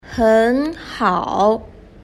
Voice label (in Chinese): "henhao", Chinese